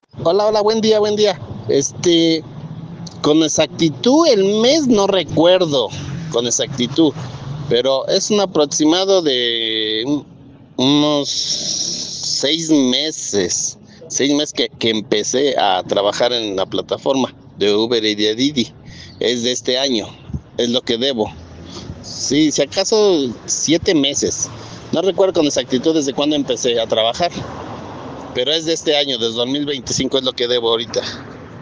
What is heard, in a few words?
whatsapp ptt